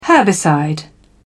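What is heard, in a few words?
"herbicide", British English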